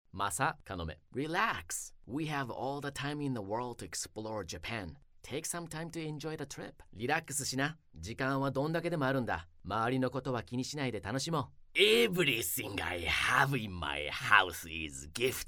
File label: Male Animation